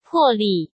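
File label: "魄力", Mandarin Chinese; chinese-flashcards; hsk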